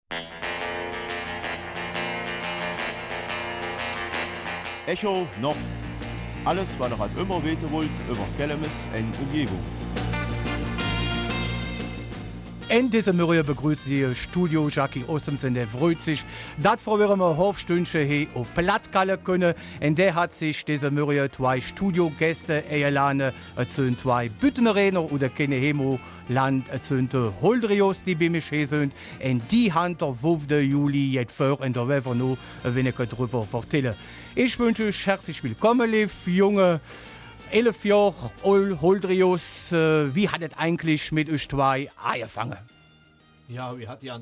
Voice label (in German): Kelmiser Mundart; De 2 Holdrios wandern für die Palliativstation